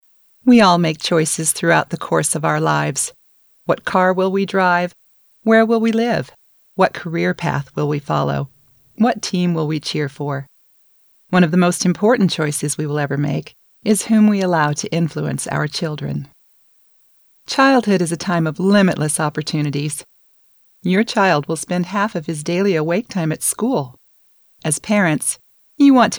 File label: mature